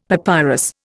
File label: "papyrus", Indian; Dictionary